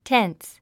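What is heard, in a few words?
"tense", English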